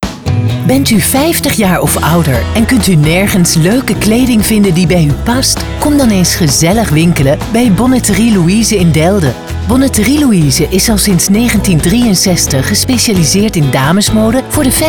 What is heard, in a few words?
Commercial; Bonneterie Louise; RTV Oost